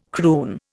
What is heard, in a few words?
"croon", Indian